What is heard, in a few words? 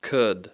"could", English